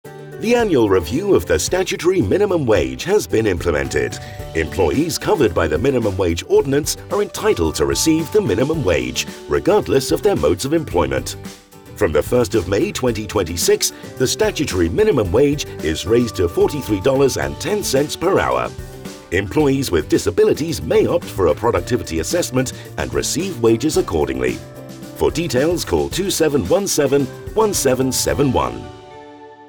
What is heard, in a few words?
English